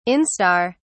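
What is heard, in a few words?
"instar", British English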